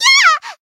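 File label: damaged, Japanese; suzette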